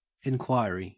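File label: "enquiry", Australian English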